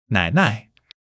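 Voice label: "nai3 nai5", Mandarin Chinese; 基础汉语40课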